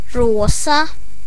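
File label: pink, Serbian; gcompris-data; colors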